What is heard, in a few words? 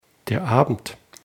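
"abend", German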